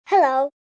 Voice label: "Hello" Child, Greetings, child, girl; Greetings and Goodbye; VoiceBox